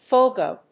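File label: "Fogo", English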